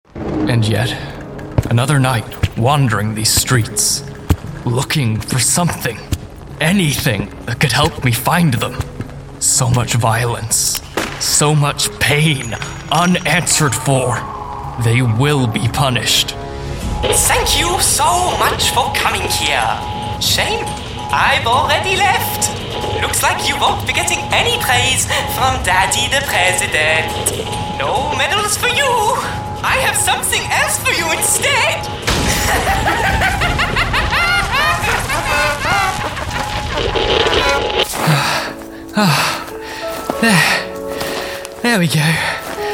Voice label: character

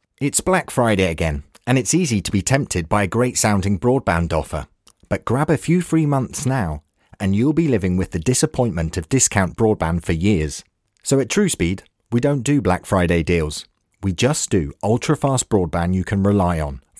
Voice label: commercial

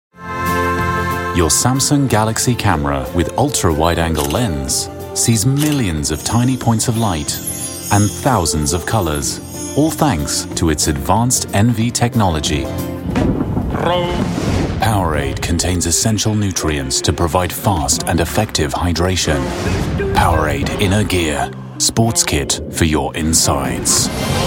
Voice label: british voiceover commercial

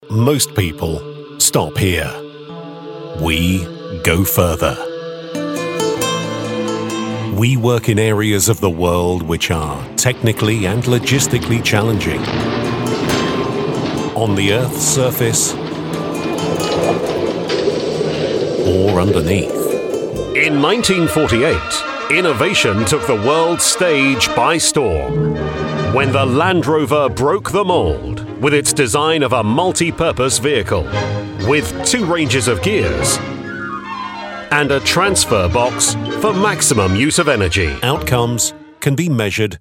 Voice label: corporate reads

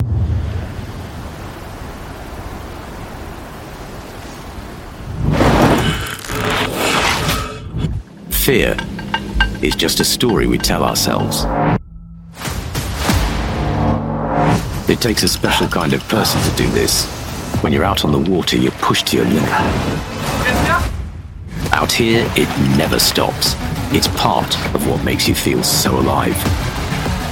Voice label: brand vo; biotherm

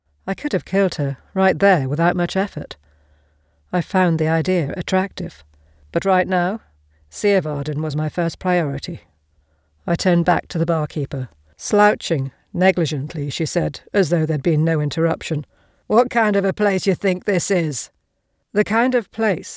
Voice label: audiobook, Neutral And Geordie Voiceover

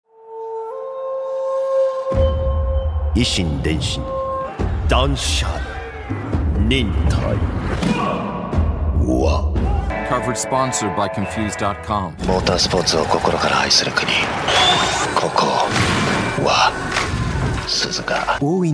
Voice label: Japanese Japanese commercial

